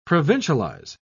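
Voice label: "provincialize", British English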